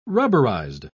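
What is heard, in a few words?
"rubberized", British English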